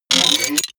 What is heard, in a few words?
robot voice click